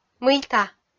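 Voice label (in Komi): "мыйта", Komi, female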